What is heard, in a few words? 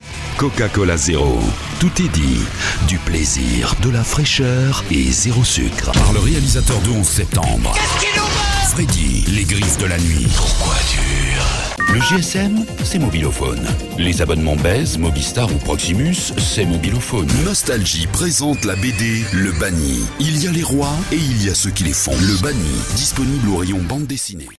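French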